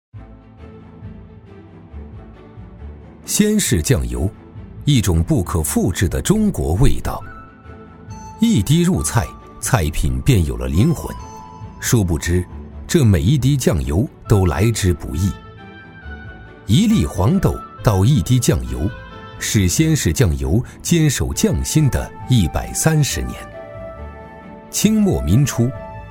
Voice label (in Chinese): 男 纪录片 舌尖美味; 中英双语; 舌尖感; 古法酱油